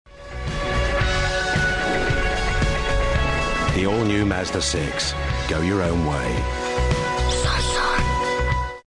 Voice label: DEEP CLOSE CONFIDENT; MAZDA